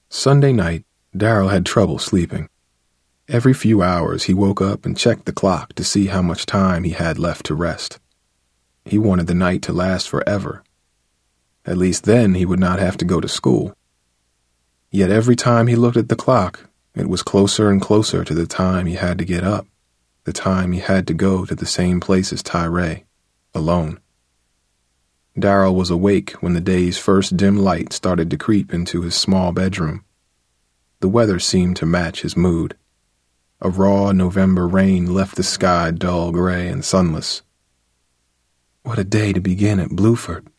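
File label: male fiction, narrator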